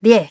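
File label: "ten", English; iOS 4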